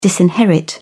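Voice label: "disinherit", English, British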